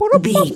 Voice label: voice fun character cute cartoon